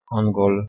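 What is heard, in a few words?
"h2engul", Proto-Indo-European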